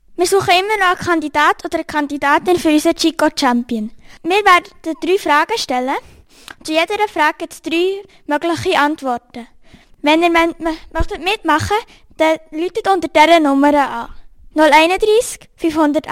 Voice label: ansage quiz; Herrenschwanden

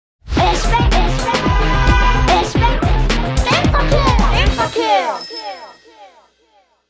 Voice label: jingle verkehr; kehrsatz; Respekt im Verkehr

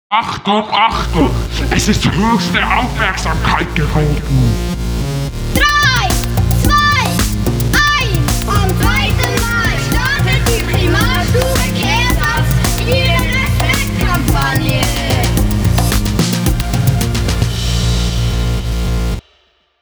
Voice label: jingle respektkampagne; kehrsatz

